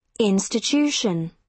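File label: "institution", British English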